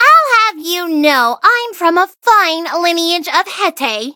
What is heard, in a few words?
touch, en; cookie run